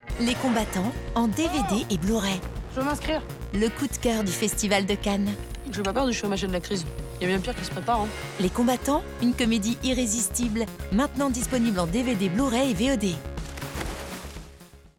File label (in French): Pub DVD souriante; Les Combattants